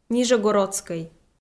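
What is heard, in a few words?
"нижегородскӧй", Komi-Zyrian, female; kpv audio dict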